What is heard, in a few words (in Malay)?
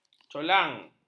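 "colang", Malay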